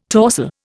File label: "torsel", Indian